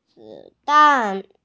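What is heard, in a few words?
"zi3dan4", Mandarin Chinese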